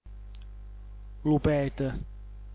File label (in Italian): "lupet", dialetto giulianova